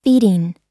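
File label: "feeding", American English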